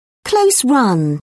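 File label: "close run", British English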